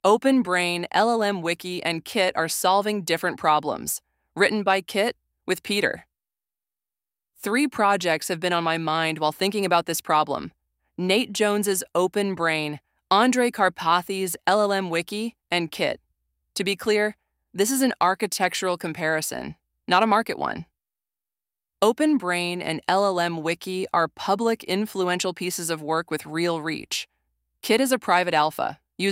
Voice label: narration